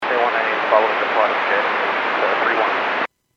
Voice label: Airports 122.7, Radio; KSTE